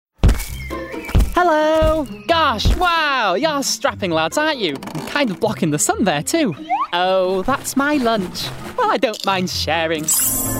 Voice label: Animation Voice Demo